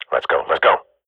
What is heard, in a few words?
radio bot go, swat; Counter Strike Model Pack; CS:GO